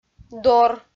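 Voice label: "дор", Komi, female; kpv audio dict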